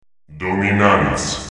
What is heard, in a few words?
"dominating", german; cstrike; quake